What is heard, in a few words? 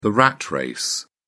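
"rat race", British English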